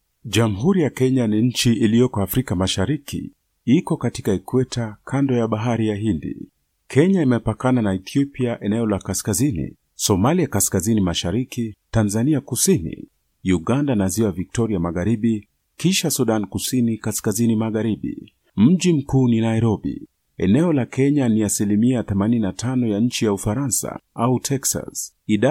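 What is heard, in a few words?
Swahili, male, Narration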